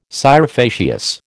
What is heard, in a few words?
"scire facias", American